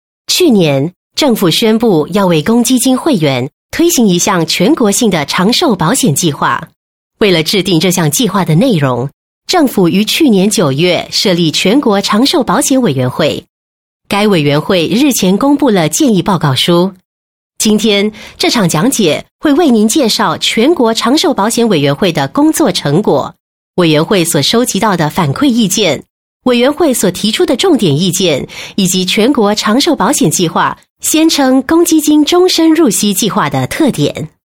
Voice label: Mandarin Commercial, Mandarin China Female

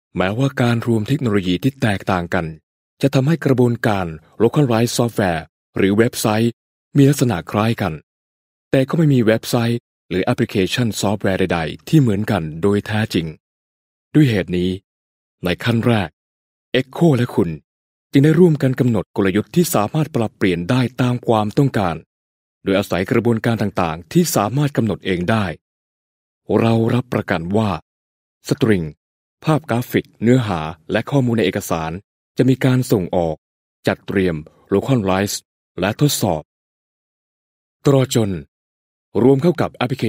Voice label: Thai Narration, Male

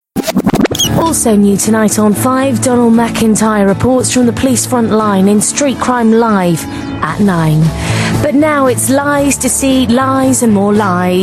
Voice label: Commercial UK English F; Female

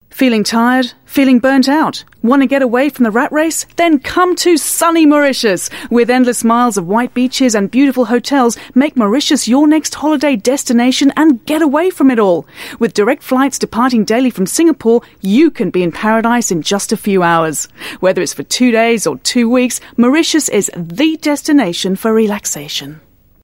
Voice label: UK English, English UK Female; Getaway Vacation

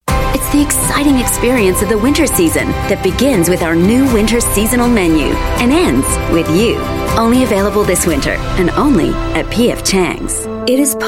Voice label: US English Commercial, Female

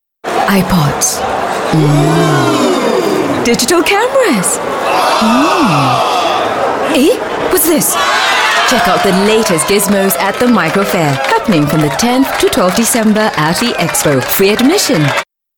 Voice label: Asian English, Singaporean Female

